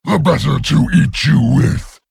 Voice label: Male Worgen